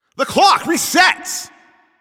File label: Announcer Overtime Starts